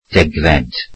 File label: "tenth", Welsh